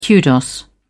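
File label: "kudos", British English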